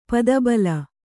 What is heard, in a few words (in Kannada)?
"ಪದಬಲ", Kannada